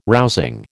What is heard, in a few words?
"rousing", American